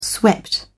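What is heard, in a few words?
"swept", British English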